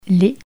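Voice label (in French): "lait" French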